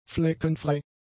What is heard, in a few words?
"fleckenfrei", German; adjectives